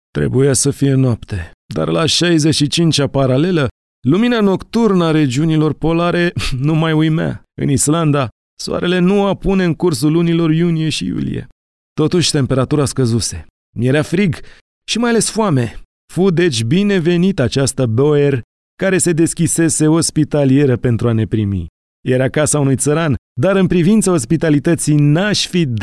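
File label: Romanian male audiobook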